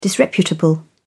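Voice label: "disreputable", British English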